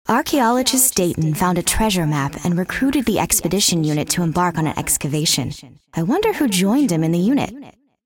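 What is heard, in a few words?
cinematic voice, Spanish; lineage2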